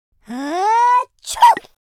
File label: idle, speech, Patronising